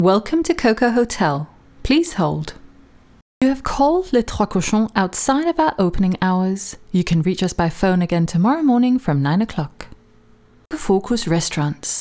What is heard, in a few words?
IVR